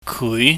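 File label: "kui", Cantonese, mid rising tone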